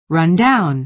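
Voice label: "run-down", American English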